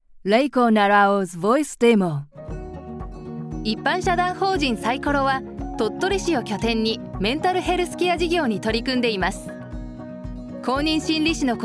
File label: japanese corporate videos